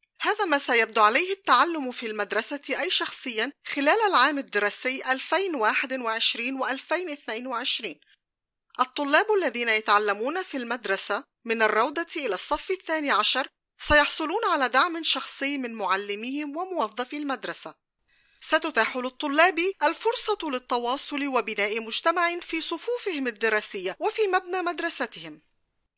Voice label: Learning at School Arabic